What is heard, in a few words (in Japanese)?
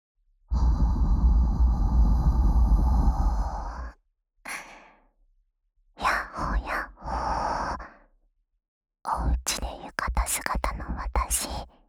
ぽわぽわ カワボ で たっぷり 甘イキ 囁き オナサポ ♪ まったり 耳舐め & しこしこ 生吐息 コール ♪, ASMR